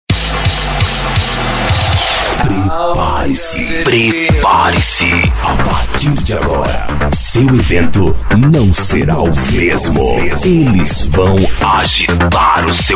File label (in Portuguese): chamadas vinhetas para festas voz locutor chamada de festa